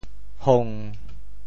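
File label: "hong1", Teochew; 潮州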